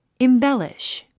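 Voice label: "embellish", English word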